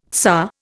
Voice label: "tsar", Indian